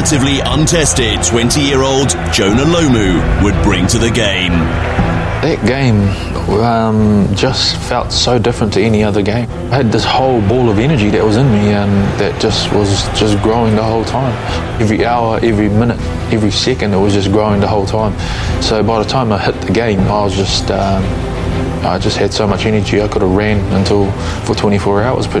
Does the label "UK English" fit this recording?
Yes